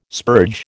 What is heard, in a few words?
"spurge", American